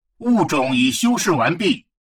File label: advisor notification species modified, chinese; Stellaris